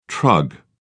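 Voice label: "trug", British English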